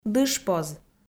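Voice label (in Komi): "дышпоз", Komi, female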